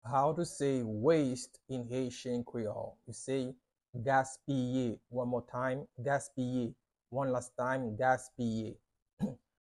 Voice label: Waste in Haitian Creole Gaspiye pronunciation by a Haitian Creole teacher